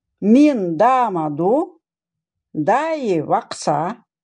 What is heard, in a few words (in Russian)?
УЛЬЧСКИЙ; Нос